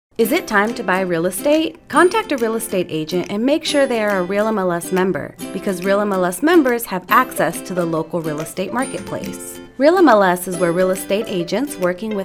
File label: Radio Spot for Buyers